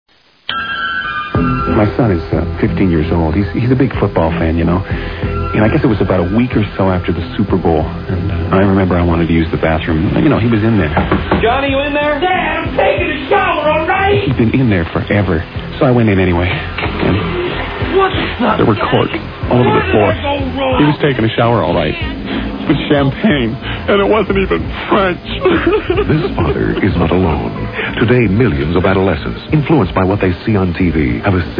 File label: Commercials; Champagne; Freekin Weird Audio